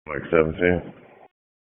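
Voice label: Sheriff's Office Dispatch; WSO DISP 1; wake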